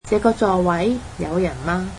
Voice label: cantonese Is this seat taken

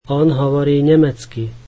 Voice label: slovak he speaks German

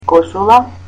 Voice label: "shirt", Macedonian

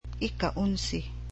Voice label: "eleventh", cebuano

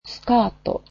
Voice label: "skirt", japanese

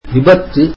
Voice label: tamil accident

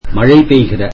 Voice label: tamil rainy

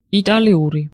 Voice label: Italian, georgian

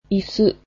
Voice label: chair, japanese

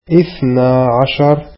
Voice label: arabic twelve

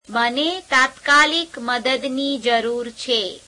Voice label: gujarati I need help immediately

